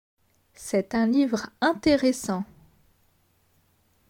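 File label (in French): "C est un livre interessant", French; grammaire; presentatifs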